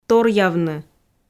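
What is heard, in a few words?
"торъявны", Komi, female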